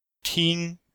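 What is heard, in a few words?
"ting1", Chinese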